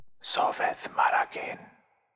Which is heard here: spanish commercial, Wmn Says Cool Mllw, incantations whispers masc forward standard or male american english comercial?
incantations whispers masc forward standard